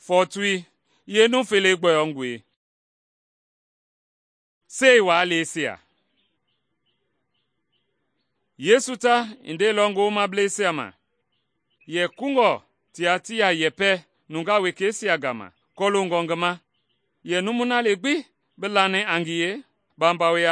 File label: mende bsl nt drama; good news mende kpa